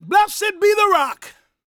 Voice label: preachin, gospel preacher